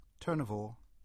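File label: "Turnavore" English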